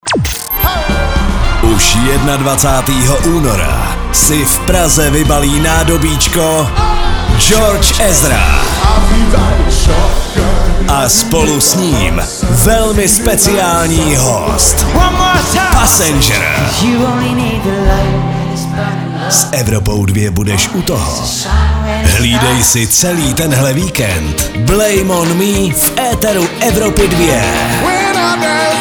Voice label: liner; evropa2